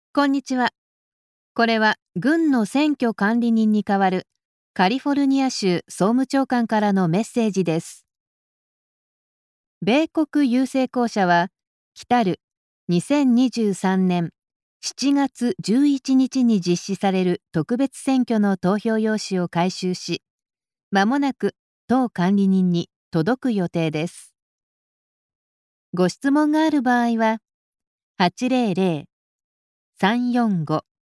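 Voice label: Japanese, female, Telephone IVR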